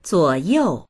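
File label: "zuo3you4", Mandarin Chinese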